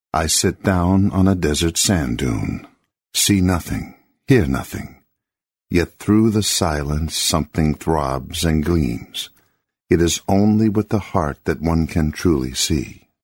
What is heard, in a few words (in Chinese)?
英男, 独白讲述